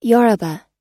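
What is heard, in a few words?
"yoruba", English word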